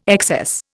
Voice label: "excess", Indian